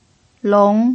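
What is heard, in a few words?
"long", Mandarin Chinese pinyin, tone 2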